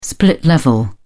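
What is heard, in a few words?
"split level", British English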